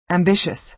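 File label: "ambitious", American English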